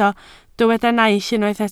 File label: "naill", Welsh